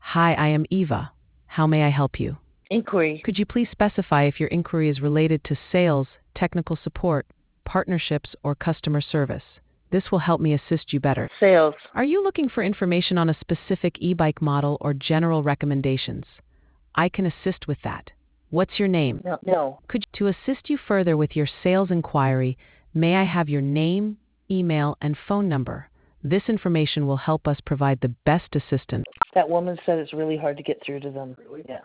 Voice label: conversation